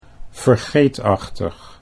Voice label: "vergeetachtig", Dutch